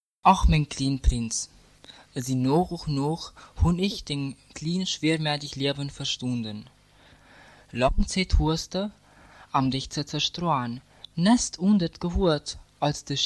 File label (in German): Deutsch Brasov